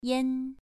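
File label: "yan2", Chinese pronunciation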